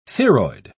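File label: "theroid", British English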